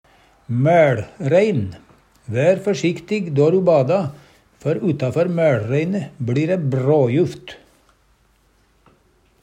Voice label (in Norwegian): maeLrein, Numedalsmål; Substantiv hokjønn